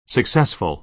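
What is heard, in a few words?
"successful", American English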